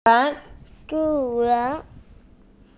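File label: "gong yuan", Mandarin Chinese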